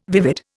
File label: "vivid", Indian